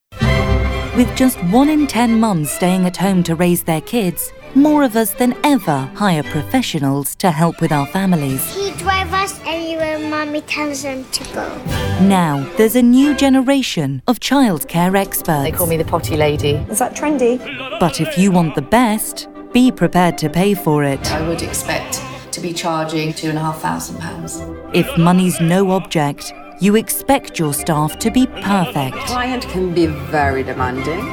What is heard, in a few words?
English British documentaries